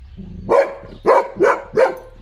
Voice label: upset; kona; fertig classic games